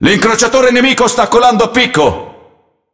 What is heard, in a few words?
It Speech Destroy Enemy Cruiser; World Of Warships